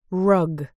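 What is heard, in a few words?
"rug", British English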